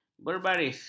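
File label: "bergaris", Malay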